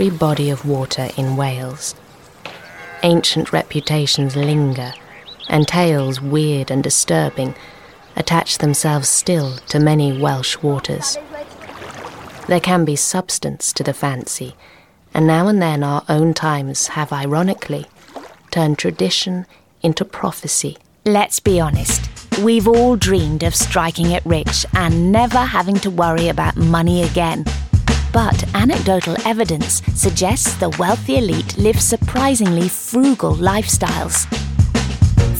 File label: VO Narration